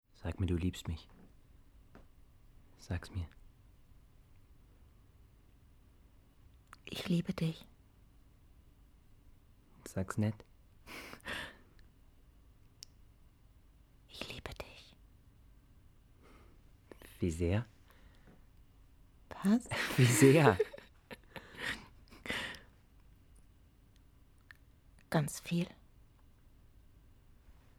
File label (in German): Dialog